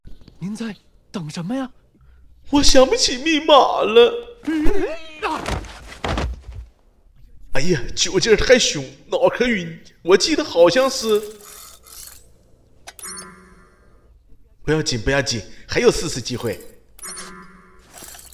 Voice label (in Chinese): 配音